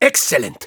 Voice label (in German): Spy cheers, de; Exzellent!